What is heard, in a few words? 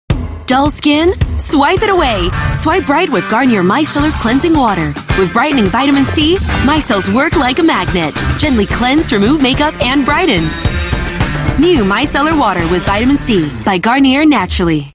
Asian English; Garnier Micelar Water